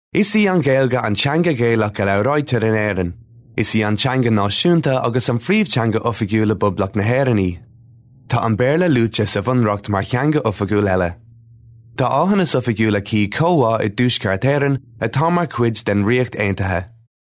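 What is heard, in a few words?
Gaelic Irish Male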